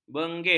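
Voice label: "bengih", Malay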